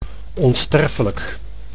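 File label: "onsterfelijk", Dutch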